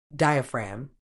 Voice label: "diaphragm", uk